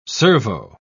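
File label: "servo", British English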